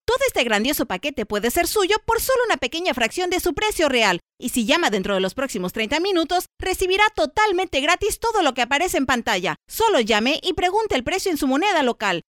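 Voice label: Spanish Latin America female sales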